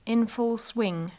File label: in full swing, english